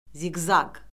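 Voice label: "ziqzaq", Azerbaijani; Orfoqrafiya Lüğəti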